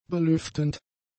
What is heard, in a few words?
"belüftend", German; adjectives